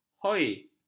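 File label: "hoi", Malay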